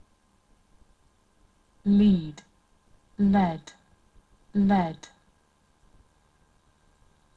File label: "lead", verbs; led; guiar